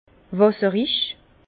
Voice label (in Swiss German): "wasserig", Bas Rhin; vin; aqueux